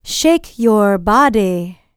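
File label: licks, female spoken; ILIO; Vocal Planet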